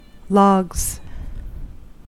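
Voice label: "logs", US English; pronunciations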